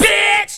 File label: "bitch", expletives